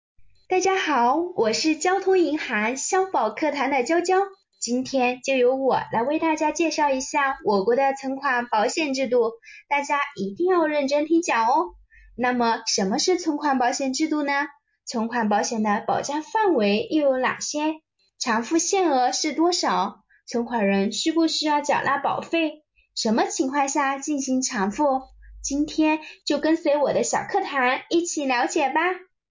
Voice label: 动漫宣传女声

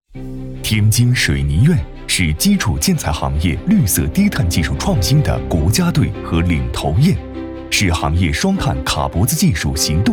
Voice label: 宣传; 天津水泥院

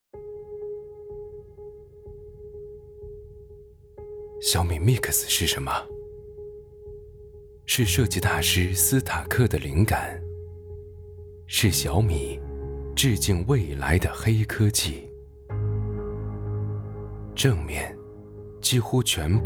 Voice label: 科技广告类

